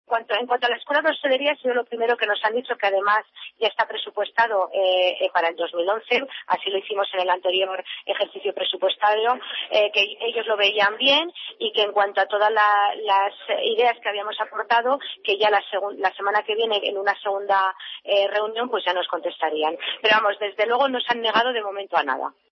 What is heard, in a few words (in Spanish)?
noticias